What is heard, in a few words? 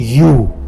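"soil", Tlacolula Zapotec; yu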